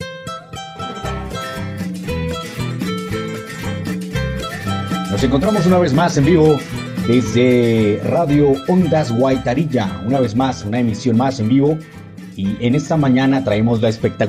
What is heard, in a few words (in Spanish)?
locutor